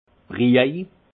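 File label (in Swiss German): "briej", alsace Haut-Rhin; vin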